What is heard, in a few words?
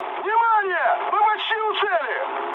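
Russian near waypoint mood high, speech; War Thunder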